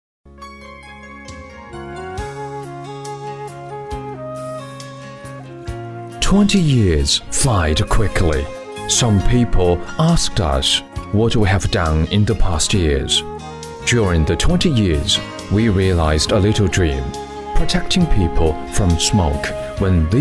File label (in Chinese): 英语男; 方太集团